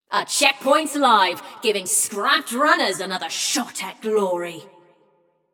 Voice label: Checkpoint Opened, Announcer; Flux; Scraprun